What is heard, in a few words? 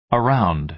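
"around", American English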